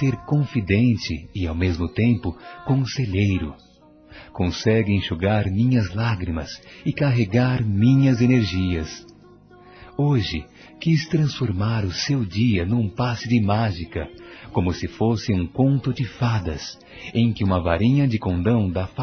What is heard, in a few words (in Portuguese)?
HOMENAGEM AO IRMÃO M, Telemensagens; Homenagens; masc